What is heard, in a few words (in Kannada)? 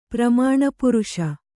"ಪ್ರಮಾಣಪುರುಷ", Kannada